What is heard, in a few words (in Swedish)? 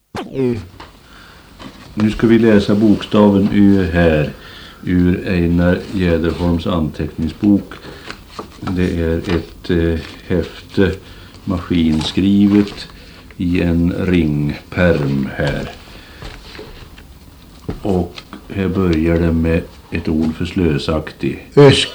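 Ovansjö Gästrikland, dialekter; Folkminnen; Svenska sagor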